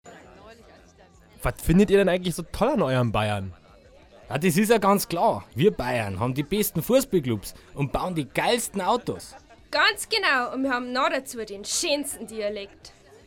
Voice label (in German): Werbung; Irlbacher